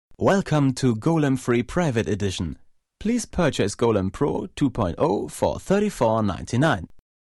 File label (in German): englische ansage